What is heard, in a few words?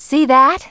"Amazing", Smooth Babe; Worms Armageddon